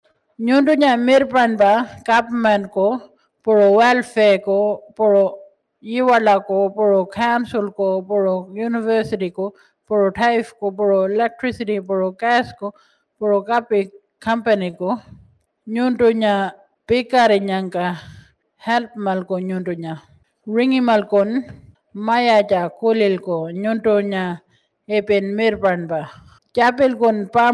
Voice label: Ngaanytjarra, Aboriginal

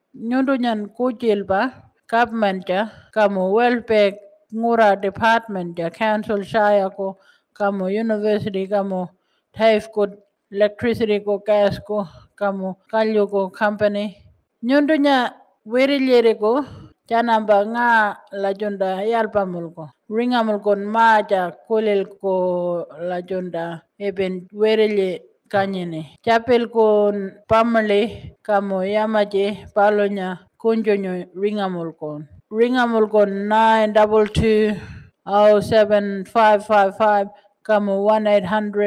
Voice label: Martu, Aboriginal

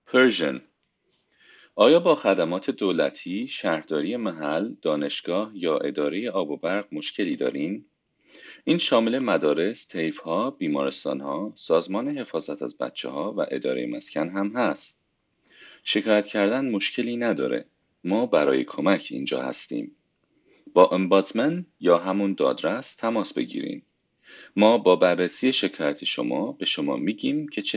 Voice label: Farsi

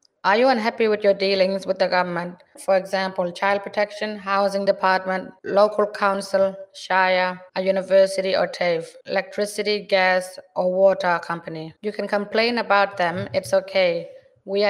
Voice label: Plain English, Aboriginal

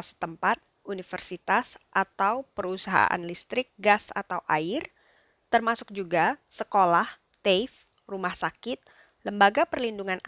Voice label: Indonesian

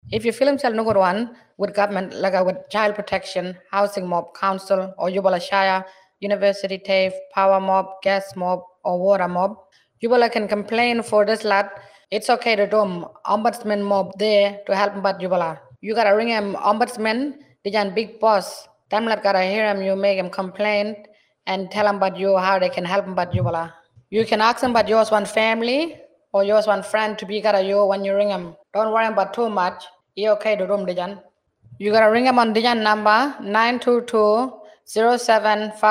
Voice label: Plain Kriol, Aboriginal

